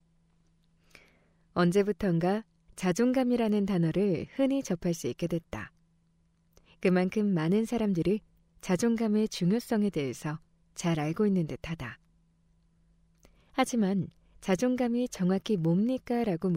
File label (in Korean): 낭독 차분하게